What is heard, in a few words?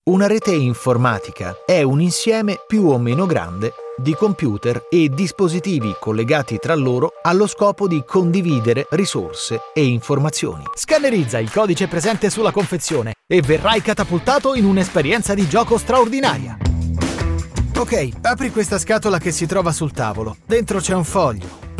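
Italian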